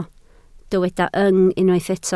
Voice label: "yng", Welsh

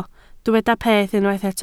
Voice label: "peth", Welsh

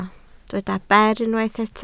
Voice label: "ber", Welsh

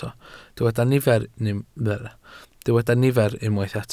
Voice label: "nifer", Welsh

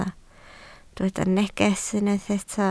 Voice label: "neges", Welsh

